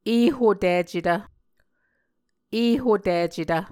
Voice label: "ehoticetv", muscogee